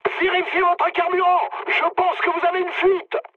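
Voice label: French tank damaged mood high; War Thunder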